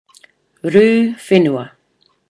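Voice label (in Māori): "ru whenua", Māori word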